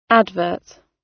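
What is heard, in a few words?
"advert", British English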